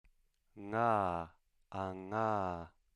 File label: voiced velar nasal